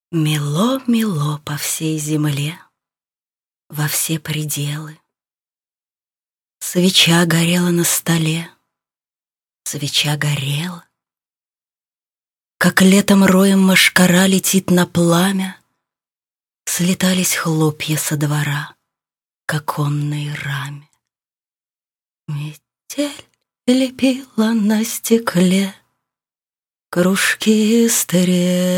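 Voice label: Russian, female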